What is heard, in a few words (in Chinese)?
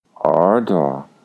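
"erduo", Mandarin Chinese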